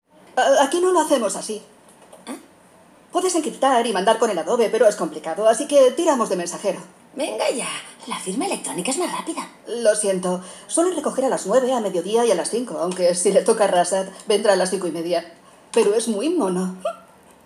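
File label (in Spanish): doblaje España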